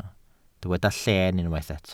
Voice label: "lleen" Welsh

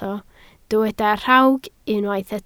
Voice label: "rhawg", Welsh

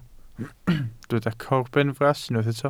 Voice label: "corbenfras" Welsh

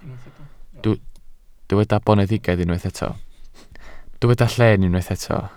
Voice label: "lleen" Welsh

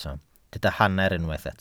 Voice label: "hanner", Welsh

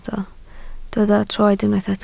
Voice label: "troed", Welsh

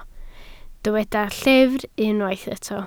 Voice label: "llyfr", Welsh

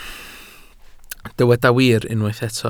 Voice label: "wir" Welsh

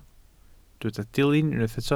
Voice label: "dilyn" Welsh

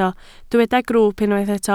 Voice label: "grwwp" Welsh

